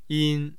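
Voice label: "yin1", Mandarin Chinese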